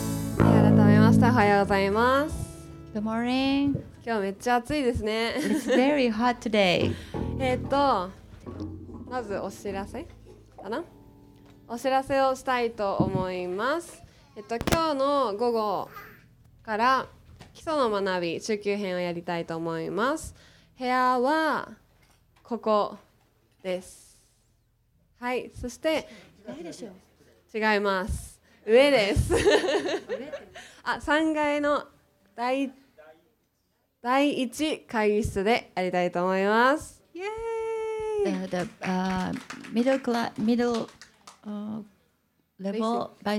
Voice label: announcement; sunday message 2019